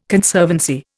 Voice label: "Conservancy", Indian

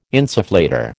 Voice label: "insufflator", American

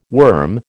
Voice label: "worm", American

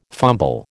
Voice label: "fumble", American

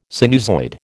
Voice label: "sinusoid", American

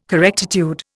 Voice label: "correctitude", Indian

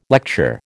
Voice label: "lecture", American

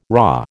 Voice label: "raw", American

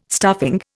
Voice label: "stuffing", Indian

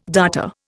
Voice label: "darter", Indian

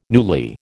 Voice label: "newly", American

